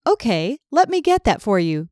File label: OK Let Me Get, IVR